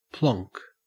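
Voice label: "plonk", Australian English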